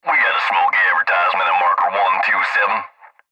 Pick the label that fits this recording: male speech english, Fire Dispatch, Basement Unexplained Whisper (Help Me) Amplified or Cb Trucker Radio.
Cb Trucker Radio